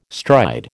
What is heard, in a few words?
"stride", American